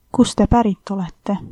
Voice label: where from, estonian